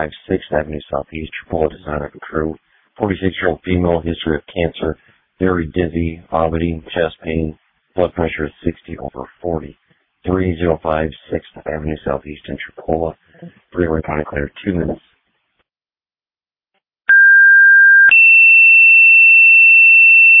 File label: Ambulance, dispatch; Sumner